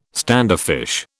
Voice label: "standoffish", British